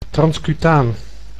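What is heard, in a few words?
"transcutaan", Dutch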